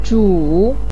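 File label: "zhu3", Mandarin Chinese pinyin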